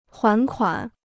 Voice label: "还款", Chinese

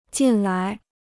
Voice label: "进来", Chinese

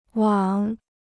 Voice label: "往", Chinese

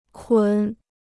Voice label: "捆", Chinese

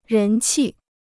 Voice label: "人气", Chinese

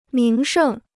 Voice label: "名胜", Chinese

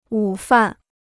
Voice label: "午饭", Chinese

